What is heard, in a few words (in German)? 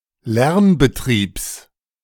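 "Lernbetriebs", German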